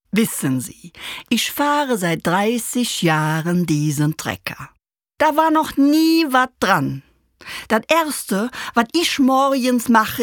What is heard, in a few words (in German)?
Rheinisch